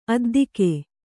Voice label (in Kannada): "ಅದ್ದುಗೆ", kannada